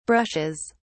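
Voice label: "brushes", English, British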